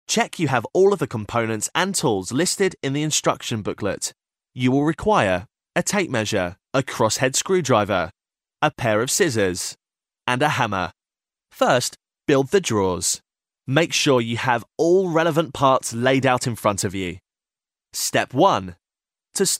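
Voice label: English, British, male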